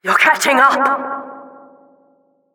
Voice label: Announcer race general

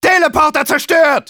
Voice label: engineer auto destroyed teleporter, de

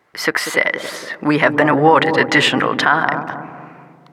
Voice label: Announcer time awarded success; "Succes. We hebben extra tijd toegekend gekregen."

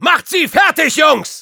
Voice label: Soldier battlecry, de; "Macht sie fertig, Jungs!!"; Start der Runde

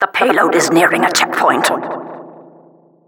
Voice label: Announcer cart defender warning; "De payload komt dichtbij een controlepost."

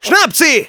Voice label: battle cry, de; Scout

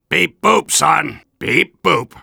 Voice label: Soldier robot

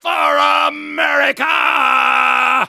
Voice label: Soldier tank warcry

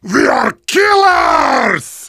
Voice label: Heavy cheers

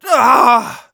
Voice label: negative vocalization, de; Scout; "D'awww!"

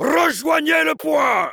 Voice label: heavy fight on cap, fr; "Rejoignez le point !"

